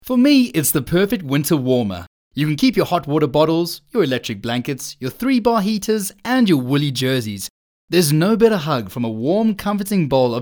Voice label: English Conversational; OSM Talent